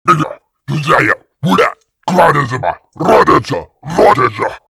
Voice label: Orc Speech Giving Orders, Monster Sounds; Olivier Girardot; Monster Sounds & Atmospheres

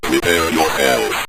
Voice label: prepare yourself, synth voice; Kenney's Sound Pack

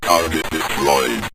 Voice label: target Destroyed, Synth Voice; Kenney's Sound Pack

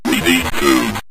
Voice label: we Need Food, Synth Voice; Kenney's Sound Pack

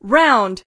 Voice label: "round", Voiceover, Female; Kenney's Sound Pack

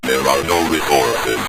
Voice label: there Are No Resources, Synth Voice; Kenney's Sound Pack

